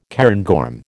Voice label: "cairngorm", American

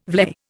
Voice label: "vlei", Indian

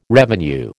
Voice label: "revenue", American

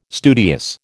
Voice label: "studious", American

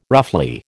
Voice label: "roughly", American

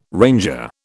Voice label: "ranger", British

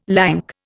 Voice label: "lank", Indian

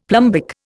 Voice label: "plumbic", Indian; Dictionary

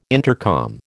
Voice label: "intercom", American

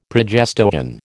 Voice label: "progestogen", American

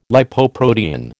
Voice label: "Lipoprotein", American

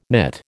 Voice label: "knit", American

